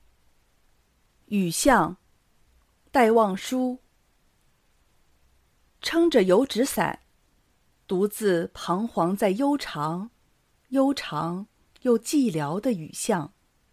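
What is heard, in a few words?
Speech Contest normal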